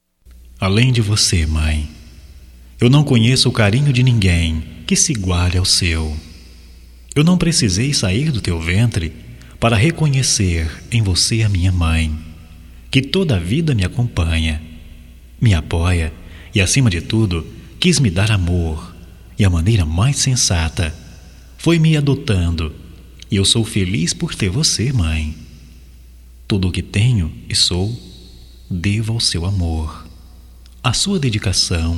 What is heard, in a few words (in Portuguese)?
HOMENAGEM A MAE ADOTIVA M, Telemensagens